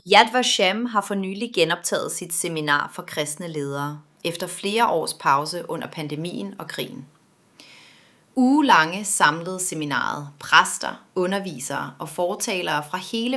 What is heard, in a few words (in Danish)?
Voiceover; Yad Vashem har for nylig genoptaget sit seminar for kristne ledere efter flere aar; kristne ledere faar en dybere forstaaelse af holocaust og antisemitisme